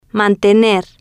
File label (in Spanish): "mantener", Spanish